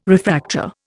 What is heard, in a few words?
"refractor", Indian